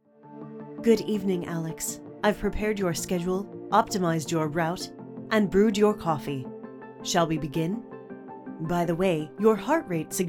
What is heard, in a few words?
AI Assistant, voice over